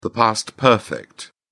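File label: "past perfect", British English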